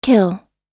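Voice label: "kill", American English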